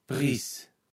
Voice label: "Pries", Platt

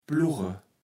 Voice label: "plugge", kaevels platt

